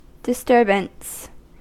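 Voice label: "disturbance", American English; pronunciations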